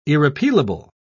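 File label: "irrepealable", British English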